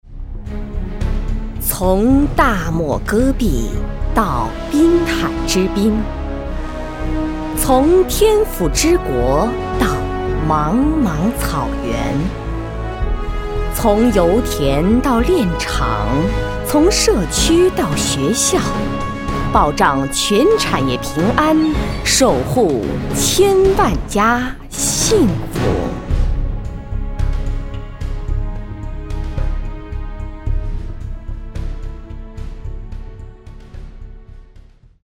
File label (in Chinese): 专题 政府 政府专题 大气